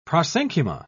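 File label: "prosenchyma", British English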